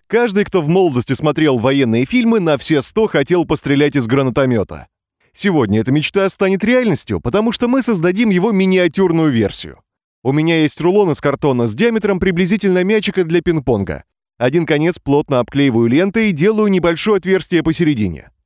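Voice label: russian male; lektoring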